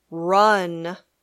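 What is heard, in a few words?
"run", English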